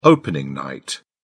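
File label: "opening night", British English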